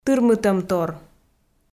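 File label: "тырмытӧмтор", Komi, female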